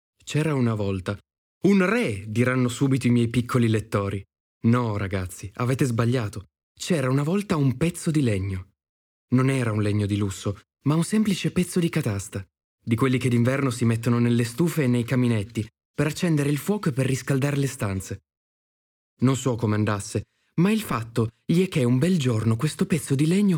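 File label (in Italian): Favola, voci maschili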